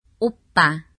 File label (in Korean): "오빠여자", korean